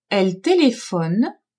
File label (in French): "elle telephone", French; telephoner